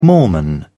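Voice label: "mormon", British English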